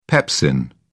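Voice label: "pepsin", British English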